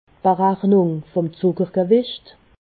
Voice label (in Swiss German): "zuckergewicht", alsace